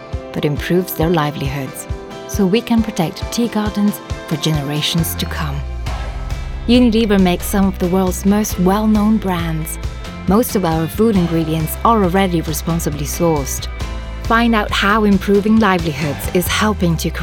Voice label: female italian english with european accent corporate; unilever